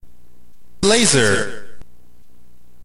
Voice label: narrator